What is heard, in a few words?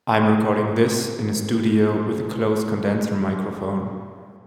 hallway studio matched